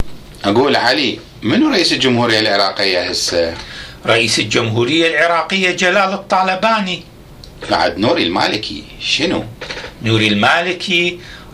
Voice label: Dialogue about the Iraqi government, Iraqi Dialect